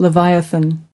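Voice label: "leviathan", US English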